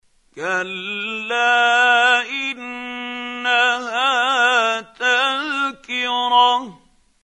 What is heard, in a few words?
quran, Hussary, tafseer; Abasa